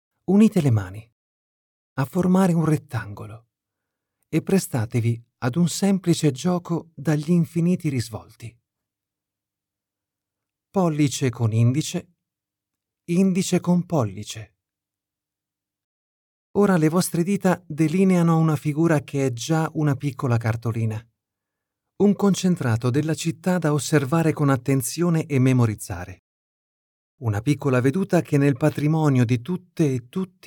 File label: italian audiobooks